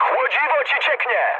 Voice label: damaged water mood high, speech pol; War Thunder

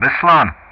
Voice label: "Beslan", Speech ENG; Ka-50; Player Callsign; DCS

